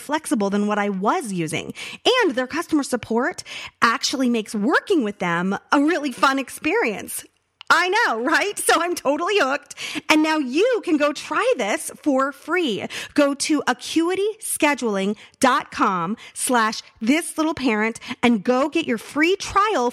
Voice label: Scheduling Ad; Acuity